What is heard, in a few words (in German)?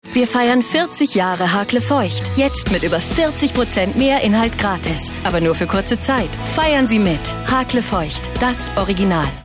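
advert, Freundlich; Hakle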